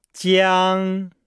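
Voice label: "jiang", Chinese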